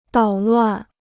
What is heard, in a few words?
"捣乱", Chinese